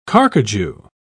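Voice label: "carcajou", British English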